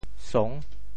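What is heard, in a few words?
"song6", Teochew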